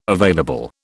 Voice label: "available", British

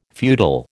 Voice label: "feudal", American

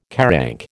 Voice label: "QARANC", American

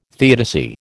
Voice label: "theodicy", American

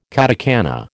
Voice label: "katakana", American

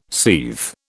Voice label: "seethe", British

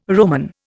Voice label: "Roman", Indian

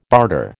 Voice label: "barter", American

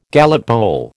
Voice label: "Gallup poll", American

